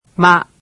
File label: japanese alphabet "ma"